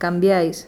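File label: "cambiais", Spanish; gleitlaute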